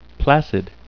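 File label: "placid", English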